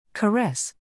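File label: "caress", English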